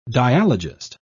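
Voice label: "dialogist", British English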